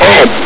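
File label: "up!", male; hl2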